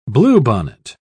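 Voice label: "bluebonnet", British English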